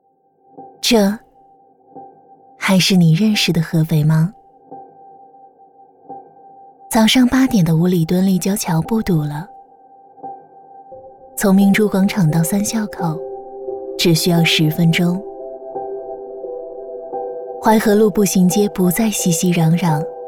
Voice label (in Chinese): 女 旁白; 合肥